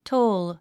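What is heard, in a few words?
"toll", English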